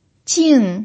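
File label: "jing" fourth tone, pinyin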